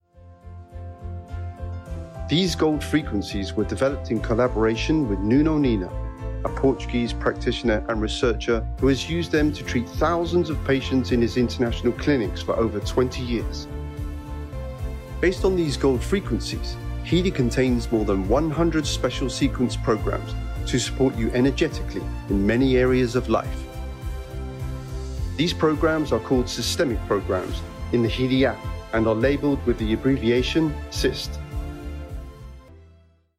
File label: Product promotion, Enlightening informational product promo; HEALY